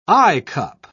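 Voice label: "eyecup", British English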